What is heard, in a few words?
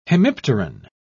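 "hemipteran", British English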